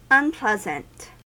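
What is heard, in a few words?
"unpleasant", American English; pronunciations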